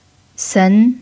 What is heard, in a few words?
"sen2", chinese pinyin